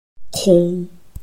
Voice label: "kong1", Chinese